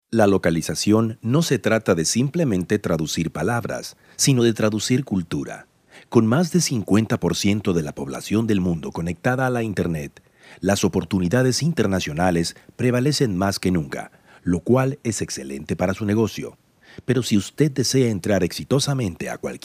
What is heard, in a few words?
spanish mexican male, telephony